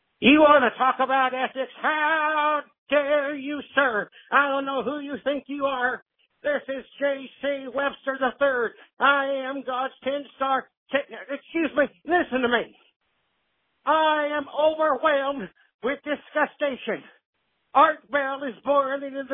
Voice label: Voicemail; U7RADIO